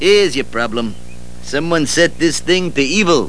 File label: evil